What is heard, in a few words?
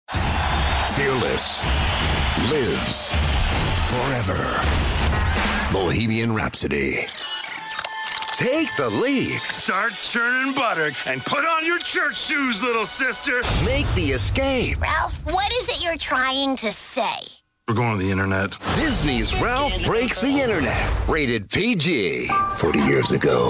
movie trailer